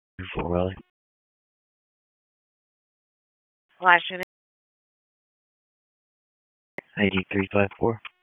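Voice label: Police Southwest District Dispatch, p25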